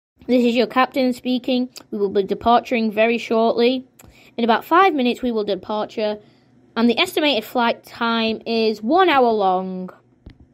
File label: flight announcement